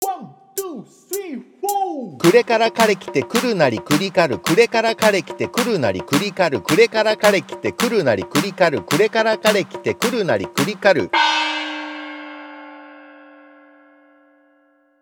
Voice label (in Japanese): 早口言葉リズム（中級編）